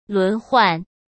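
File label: "轮换", Mandarin Chinese; hsk; chinese-flashcards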